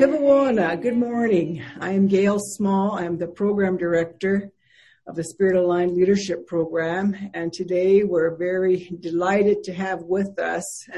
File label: Interview